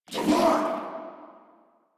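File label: Reload, English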